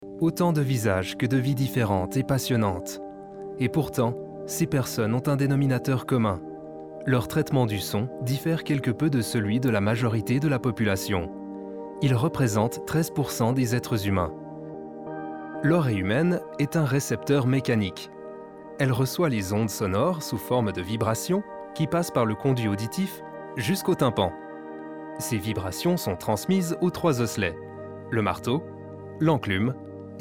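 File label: French Documentary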